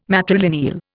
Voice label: "matrilineal", Indian

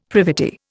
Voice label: "privity", Indian; Dictionary

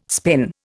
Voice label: "spin", Indian

